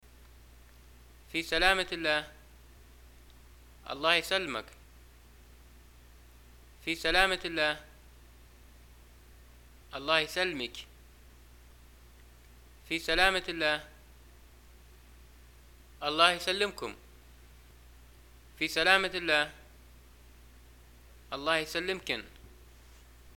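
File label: Libyan greeting farewell, arabic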